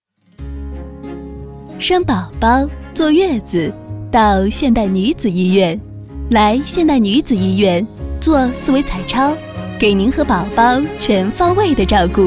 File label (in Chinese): 广告现代女子医院